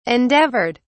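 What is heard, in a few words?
"endeavoured", British English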